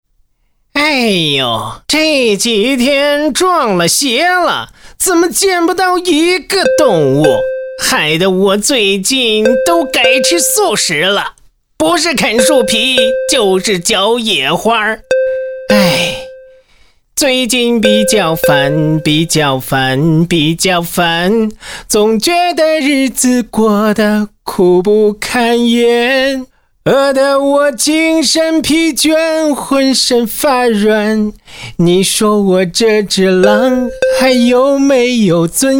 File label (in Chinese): 男 模仿 动画人物 大灰狼